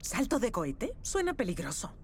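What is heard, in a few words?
rocket jump, spanish; rocket jump q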